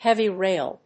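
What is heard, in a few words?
"heavy rail", English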